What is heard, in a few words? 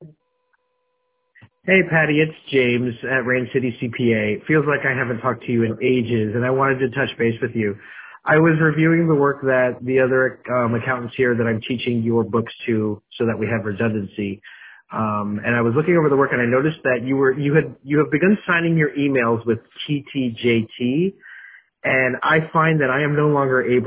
voicemail